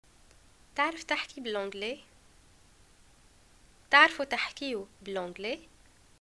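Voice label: "getacqua do u speak eng", Tunisian Arabic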